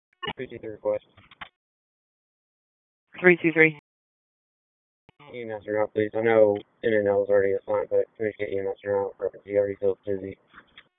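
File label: Police Northeast District Dispatch; Raleigh; wake; p25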